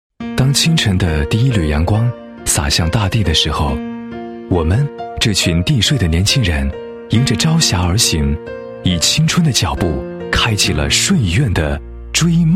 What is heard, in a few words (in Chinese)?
男 地税局 地税系统青年人掠影; 运城地税系统青年人掠影; 内心独白